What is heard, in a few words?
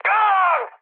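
Korean damaged pilot mood med, speech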